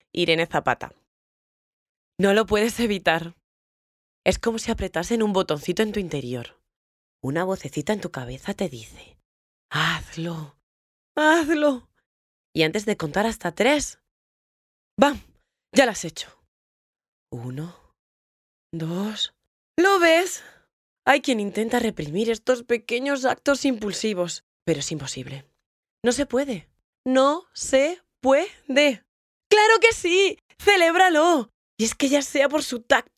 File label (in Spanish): Publicidad